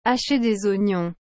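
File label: "hacher des ognons", French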